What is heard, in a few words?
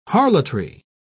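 "harlotry", British English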